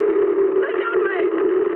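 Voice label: aircraft destroyed mood high, speech English; War Thunder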